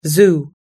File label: "zoo", US English